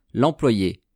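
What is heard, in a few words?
"l employee", French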